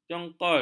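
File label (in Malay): "jongol", Malay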